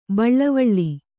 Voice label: "ಬಳ್ವಳ", kannada